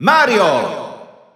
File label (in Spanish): Spanish Announcer; Mario; SSBU